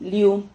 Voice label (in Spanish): "liu", Silabas